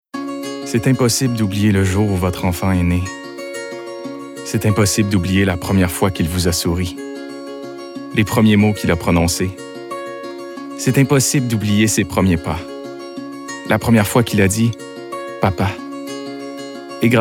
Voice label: PUB-FR; CHEVY

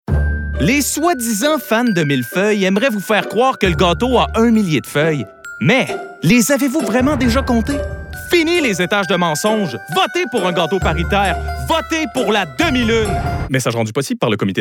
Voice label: PUB FR; VACHON

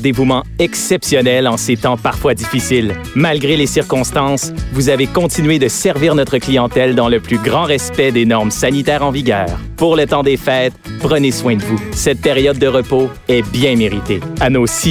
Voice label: PUB FR AUTOBUS; AUGER

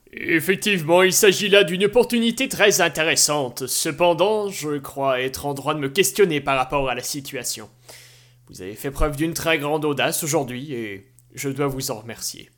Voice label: Voix Personnage Grave

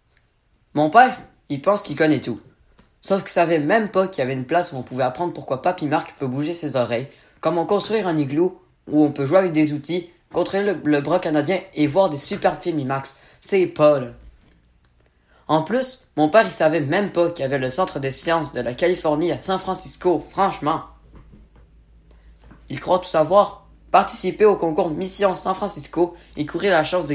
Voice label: VOIX Pub

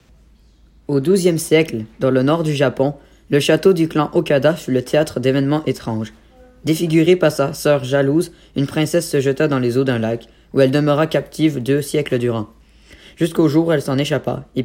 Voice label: VOIX Narratif

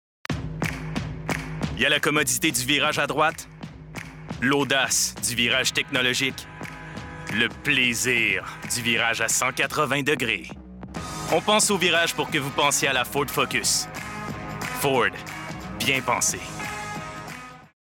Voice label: PUB FR; FORD

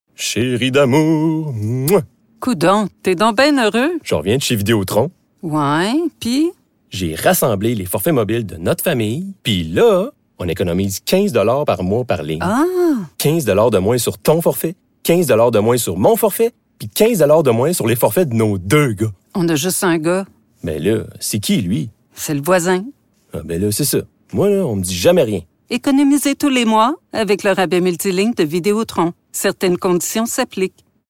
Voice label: FR VOIX

